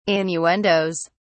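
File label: "innuendoes", British English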